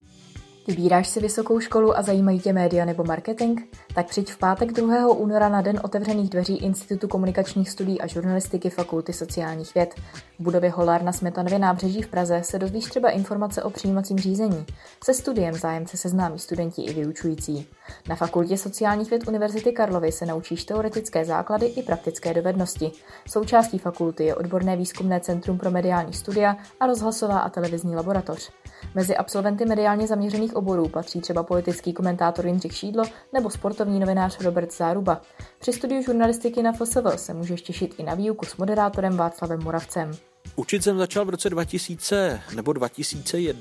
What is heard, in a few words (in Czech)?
reklama